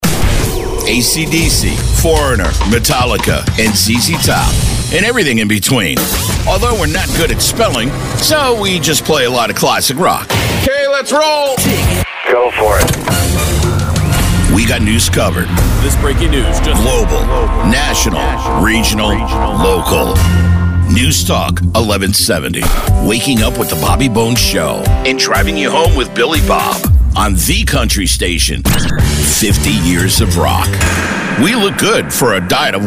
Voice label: Voiceover